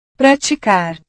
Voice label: "praticar", portugues